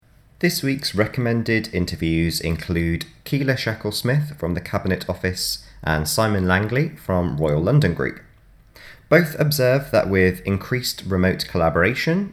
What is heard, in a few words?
Narration; Radius; Widening Your Network